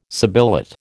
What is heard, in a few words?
"sibilate", American